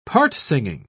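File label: "part-singing", British English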